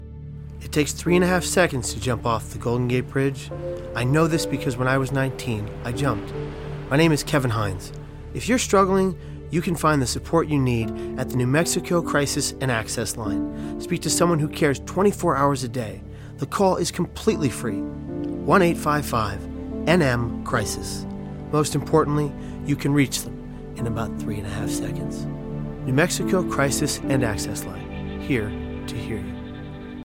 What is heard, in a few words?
Radio English